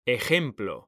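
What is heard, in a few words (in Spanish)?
"ejemplo", Spanish; mekanta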